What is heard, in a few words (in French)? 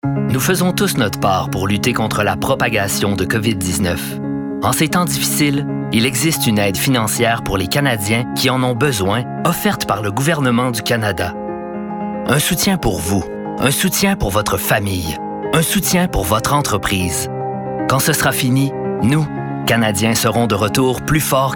annonceur